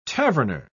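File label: "taverner", British English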